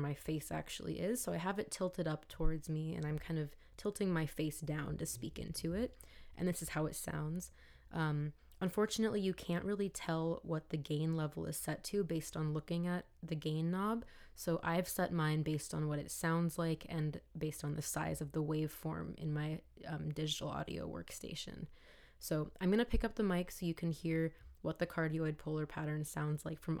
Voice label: Neat Bumblebee II speaking microphone sample